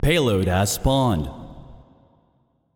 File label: Match Payload Arrived, Announcer; Feyrazzle; Paladins